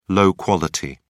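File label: "low quality", British English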